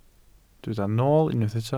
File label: "nool", Welsh